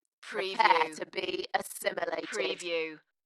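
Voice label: British female Prepare to be assimilated; Dalekness; beanstalk audio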